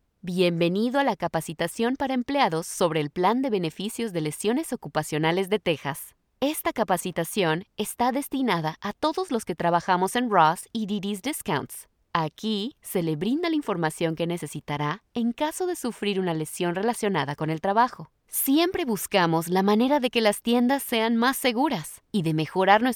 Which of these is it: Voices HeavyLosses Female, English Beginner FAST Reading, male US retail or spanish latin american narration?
spanish latin american narration